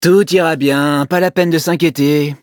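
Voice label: loading, French; Cookie Run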